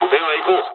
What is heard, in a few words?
dialogs japanese; War Thunder